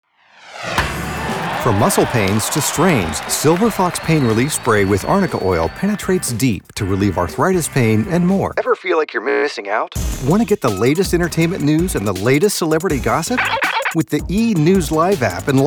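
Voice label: voiceover commercial, Male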